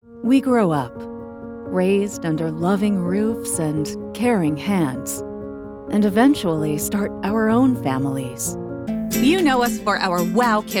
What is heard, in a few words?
Commercial